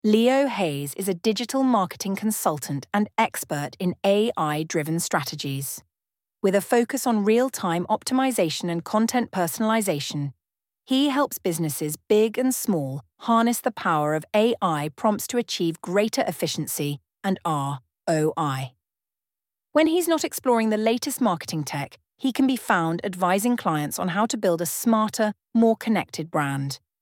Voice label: Voiceover